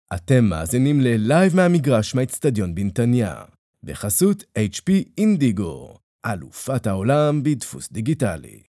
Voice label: hebrew promos

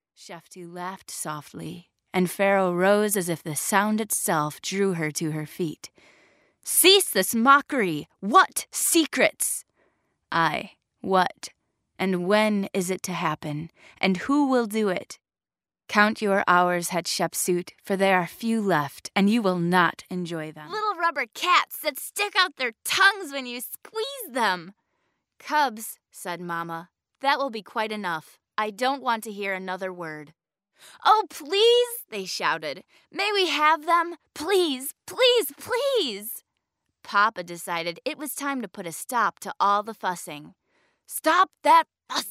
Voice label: english american audiobooks

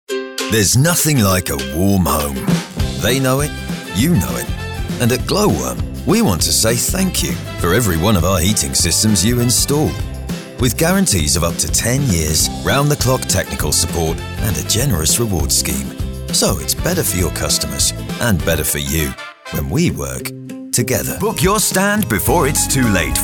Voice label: english british promos

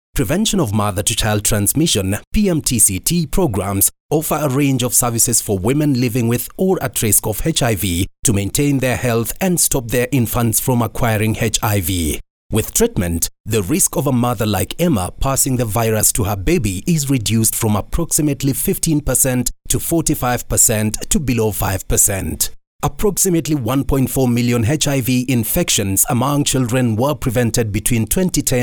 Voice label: english african e-learning

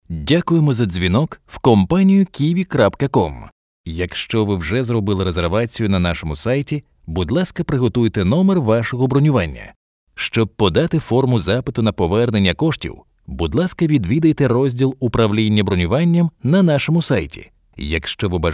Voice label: ukrainian ivr

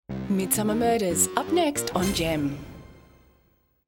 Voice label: english australian tv shows

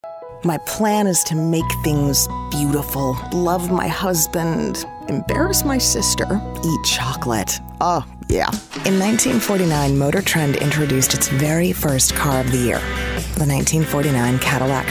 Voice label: english american narration

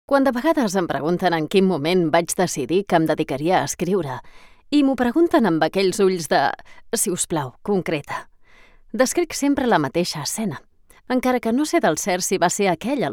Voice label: Catalan narration; professional Spanish and Catalan voice actor; spanish voice actors

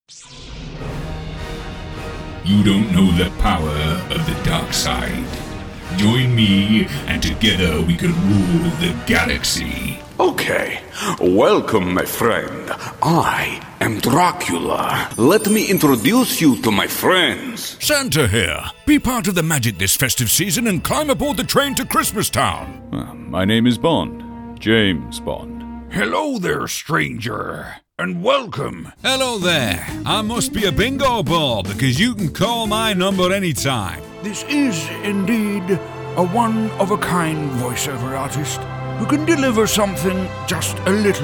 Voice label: english british impersonations

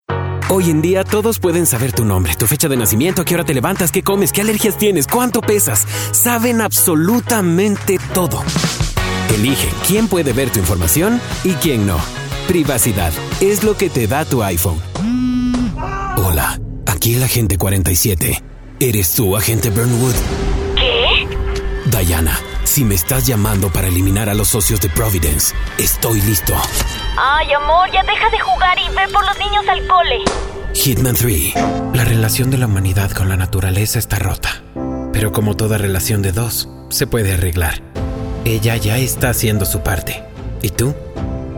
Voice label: spanish latin american commercial; United States; neutral spanish commercial voiceover art

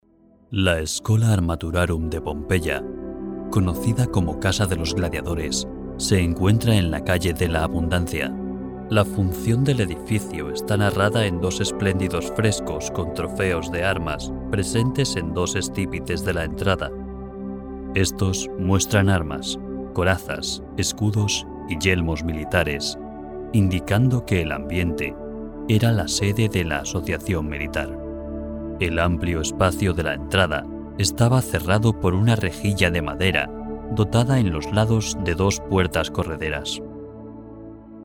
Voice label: spanish documentaries

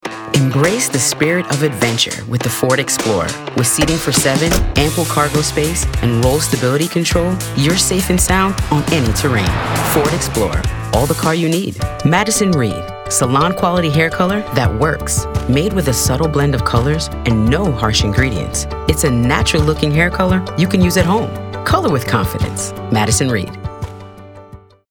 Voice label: english american others

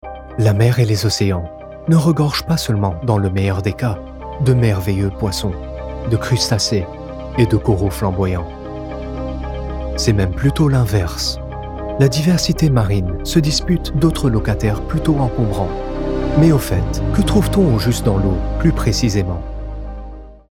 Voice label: french documentaries

